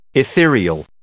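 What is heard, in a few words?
"ethereal", English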